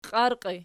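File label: "qiarqii" nominative, Archi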